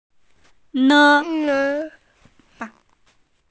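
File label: "ne1", Mandarin Chinese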